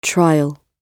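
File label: "trial", British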